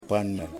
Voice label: "Husband", Yimas